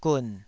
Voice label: "gun3", taiwanese syllable